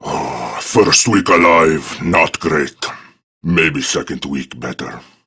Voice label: Patrol Prime Grineer Male; Tau; Battle Barks